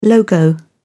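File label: "logo", British English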